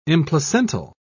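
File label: "implacental", British English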